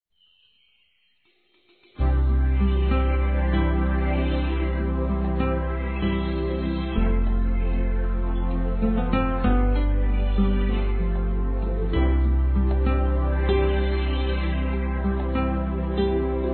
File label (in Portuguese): ROMANTICA F; Aniversários; ANIVERSARIO romantico; fem